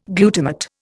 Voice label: "glutamate", Indian

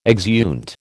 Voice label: "exeunt", American

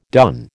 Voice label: "done", American

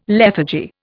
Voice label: "lethargy", Indian

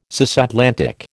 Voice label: "cisatlantic", American

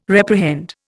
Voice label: "reprehend", Indian

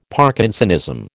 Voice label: "Parkinsonism", American

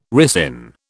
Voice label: "ricin", British

